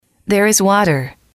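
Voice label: "there is water", English, female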